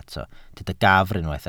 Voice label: "gafr", Welsh

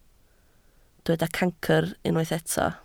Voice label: "cancr", Welsh

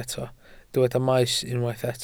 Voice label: "maes", Welsh

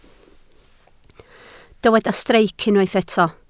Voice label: "streic", Welsh

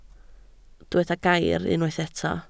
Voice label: "gair", Welsh

